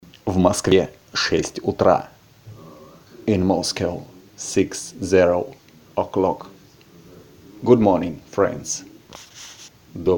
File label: radio good morning